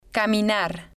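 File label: "caminar", Spanish, female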